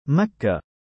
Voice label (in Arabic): "makkah", female name; Arabic; Makka、Makkah; イスラームの聖地であり現サウジアラビアにある地名マッカ（メッカ）のこと; مَكَّة; given name